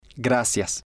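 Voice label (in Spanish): "gracias", spanish, male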